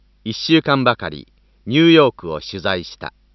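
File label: Japanese Male, Target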